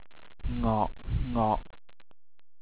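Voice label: "ngok6" Cantonese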